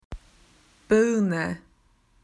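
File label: "pear", Australian English; PictureDictionary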